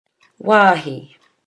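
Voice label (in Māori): "wahi", Māori word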